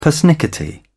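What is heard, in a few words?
"persnickety", British English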